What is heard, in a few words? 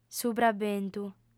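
"subraventu", female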